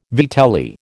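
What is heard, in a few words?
"vitelli", American English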